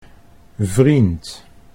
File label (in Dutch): "vriend", Dutch